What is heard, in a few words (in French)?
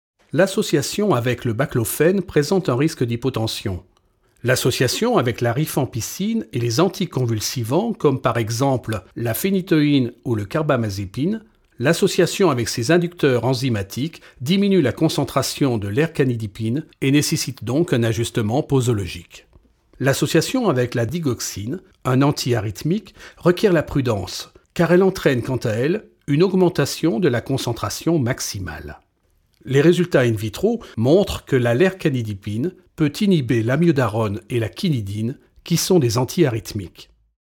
CORPORATE